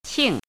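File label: "qing", pinyin, fourth tone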